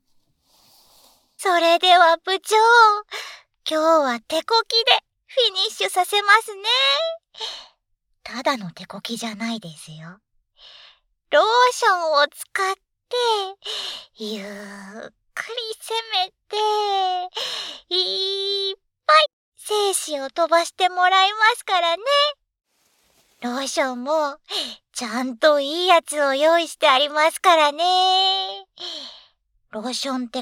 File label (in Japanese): クチュ音なし